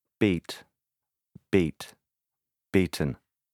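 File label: "beat", English; legyőz; erősen megüt; ver (szív); üt (óra); felver (pl. tojást)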